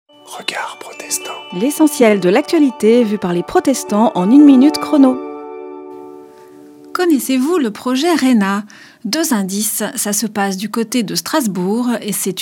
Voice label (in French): Spot radio; Regards protestants